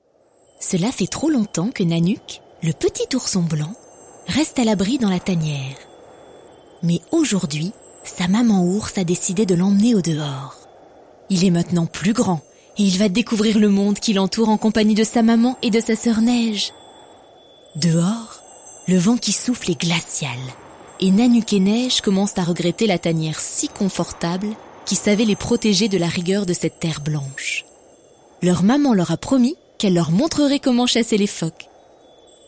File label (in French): narration, voix off; Voici un extrait d’une voix off réalisée pour un spectacle enfant.